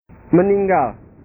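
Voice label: "meninggal", Indonesian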